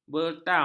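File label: "bertam", Malay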